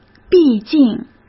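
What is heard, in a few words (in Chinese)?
"毕竟", Mandarin Chinese; chinese flashcards; hsk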